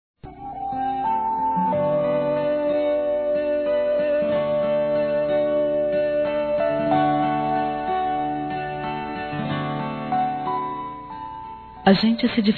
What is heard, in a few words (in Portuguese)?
perdao meu amor f; Telemensagens; Desculpas; fem; Telemensagens 2017